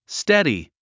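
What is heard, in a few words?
"steady", English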